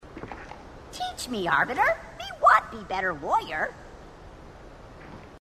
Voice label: grunt; h2dialogue; grunt crazy

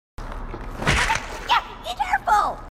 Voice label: grunt; h2dialogue; grunt crazy

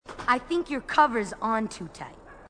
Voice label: female marine, marine tough

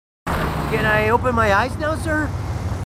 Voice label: marines, marine timid; h2dialogue

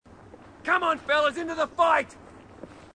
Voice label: marine, aussie; h2dialogue

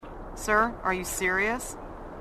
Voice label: female marine, sassy

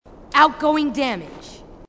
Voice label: marine tough

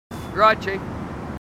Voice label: marines, aussie; h2dialogue

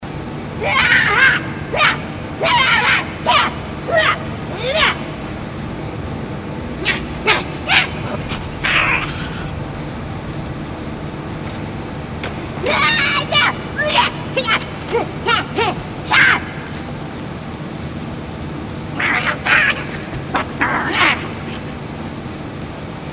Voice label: suicide grunt, grunts; h3dialogue

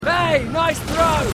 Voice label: marine aussie; h3dialogue

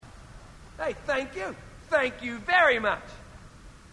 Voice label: marine, aussie; h2dialogue

